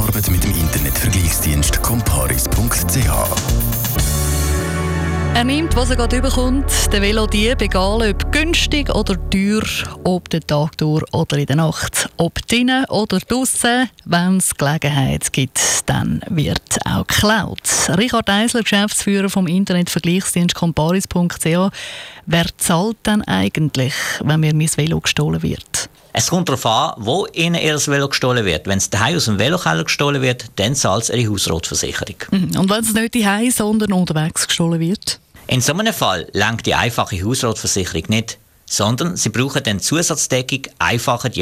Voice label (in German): radio; velodiebstahl; comparis; versicherungen